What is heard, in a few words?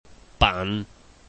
"ban3", Chinese